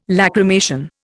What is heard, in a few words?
"lachrymation", Indian